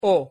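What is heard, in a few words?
pronunciation "o", Spanish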